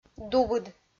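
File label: "дубыд", Komi, female; kpv audio dict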